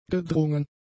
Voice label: "gedrungen", German; adjectives

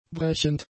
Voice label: "brechend", German; adjectives

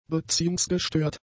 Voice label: "beziehungsgestört", German; adjectives

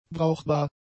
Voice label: "brauchbar", German; adjectives

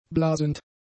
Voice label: "blasend", German; adjectives

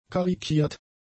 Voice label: "karikiert", German; adjectives

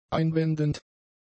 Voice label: "einwendend", german; adjectives

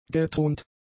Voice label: "gethront", german; adjectives

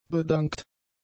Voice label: "bedankt", German; adjectives

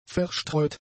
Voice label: "verstreut", German; adjectives

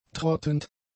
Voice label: "trottend", German; adjectives

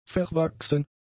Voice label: "verwachsen", German; adjectives

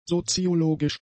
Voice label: "soziologisch", german; adjectives

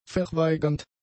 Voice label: "verweigernd", German; adjectives

